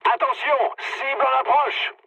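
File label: near waypoint, mood medium, French; War Thunder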